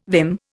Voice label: "vim", Indian

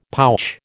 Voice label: "pouch", American

